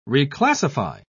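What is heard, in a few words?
"reclassify", British English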